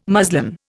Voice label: "muslin", Indian

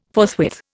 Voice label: "forthwith", Indian

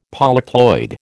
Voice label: "polyploid", American

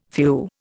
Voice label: "few", Indian

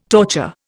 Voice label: "torture", Indian

